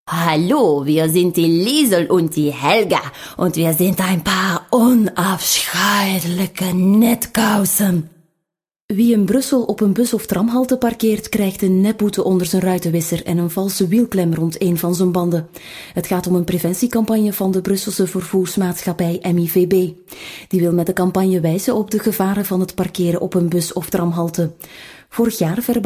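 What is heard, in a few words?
Flemish, female, character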